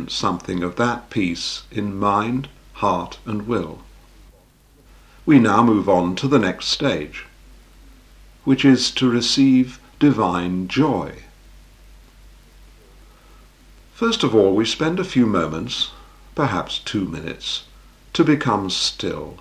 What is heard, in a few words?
Short relaxation exercise; divine joy; into God